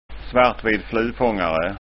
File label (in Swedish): "flufangare", skanskaord